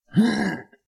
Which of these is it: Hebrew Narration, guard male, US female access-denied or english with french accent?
guard male